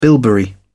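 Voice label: "bilberry", British English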